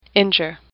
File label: "injure", English; AWL